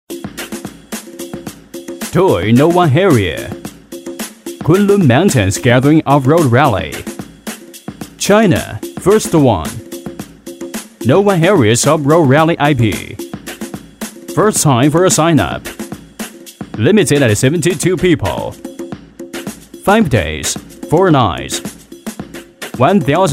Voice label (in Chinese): 男英 外语 国内英语 广告; 广告陶冶无人区